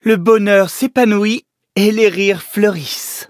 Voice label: levelup, fr; Cookie Run